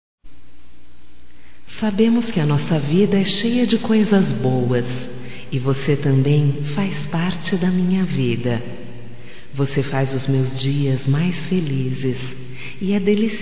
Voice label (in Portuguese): Romântica Feminino, Telemensagens, Só Voz; Telemensagens 2017